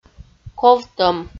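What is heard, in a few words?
"ковтӧм" Komi, female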